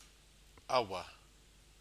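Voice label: "awa", Maori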